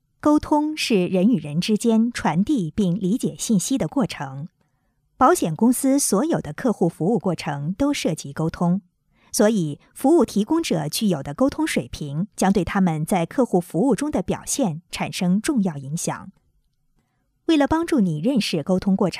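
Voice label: chinese mandarin female narration; voice demos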